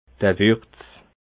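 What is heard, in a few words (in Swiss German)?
"wurz", Alsatian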